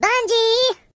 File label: "Bungee", voices, Singer; HedgewarsMobile